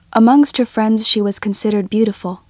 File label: English Female, Target